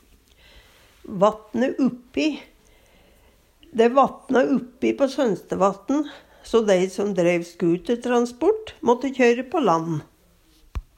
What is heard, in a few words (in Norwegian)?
vatne uppi, Numedalsmål